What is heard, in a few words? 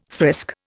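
"frisk", Indian English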